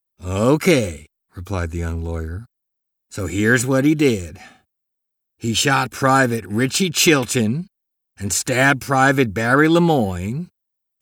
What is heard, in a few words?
audio books, American; USA